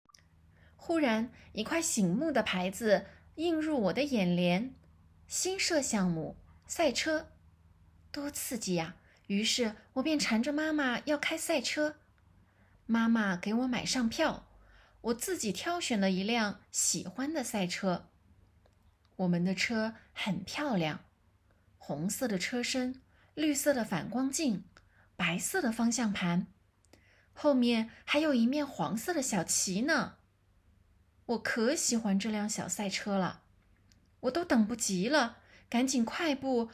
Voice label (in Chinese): 作文配音号试音